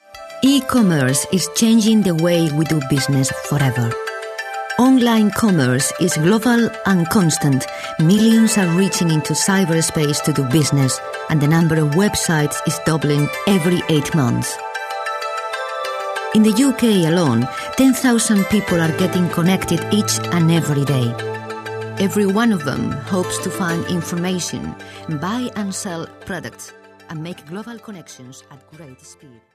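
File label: Spanish, English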